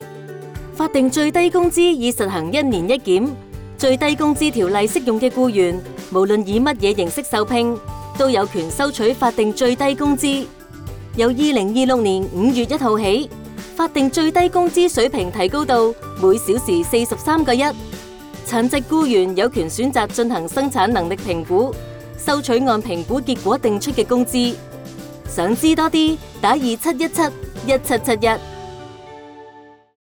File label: Cantonese; 法定最低工资